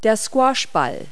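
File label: "squash ball", English words; Multi-Lingual Talking Picture Dictionary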